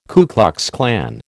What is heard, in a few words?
"Ku Klux Klan", American